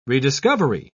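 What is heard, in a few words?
"rediscovery", English, British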